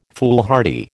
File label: "foolhardy", American